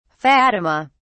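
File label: "fatima", British English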